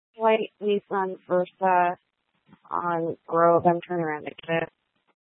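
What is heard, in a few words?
Tempe Police Department, scanner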